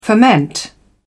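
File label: "ferment", English, British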